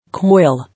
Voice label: "Coil", English